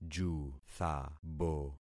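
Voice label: "juthabo", concatenated syllables; Affixing experiment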